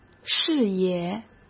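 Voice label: "视野", Mandarin Chinese; hsk; chinese-flashcards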